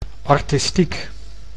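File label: "artistiek", Dutch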